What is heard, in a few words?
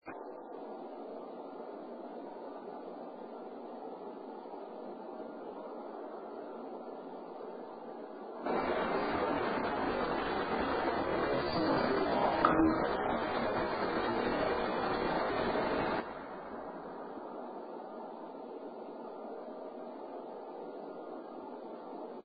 male v; Case 012 Pocahontas Fuel Building 2nd Floor Art Room